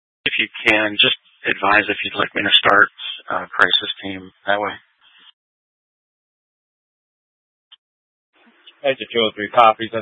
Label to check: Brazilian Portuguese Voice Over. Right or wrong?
Wrong